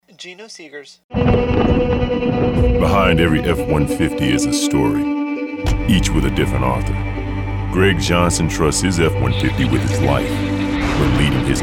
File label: commercial